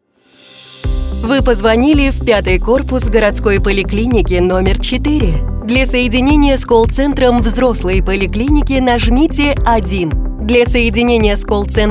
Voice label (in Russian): Поликлинника IVR